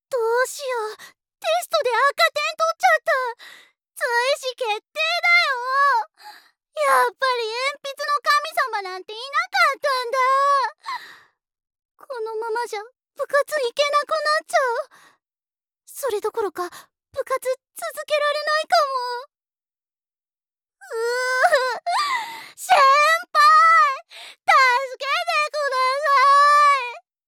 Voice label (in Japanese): 女子高生